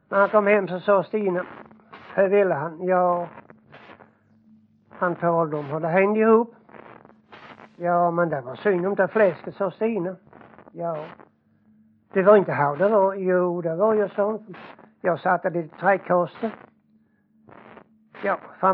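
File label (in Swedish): Jämshög Blekinge, dialekter; Folkminnen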